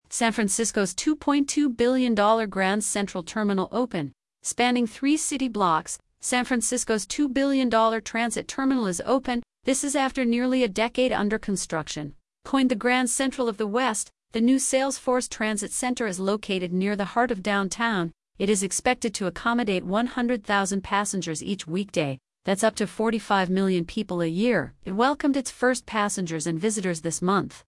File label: Female Newsreader Example